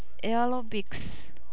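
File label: "aerobics", Japanese speaker of English, female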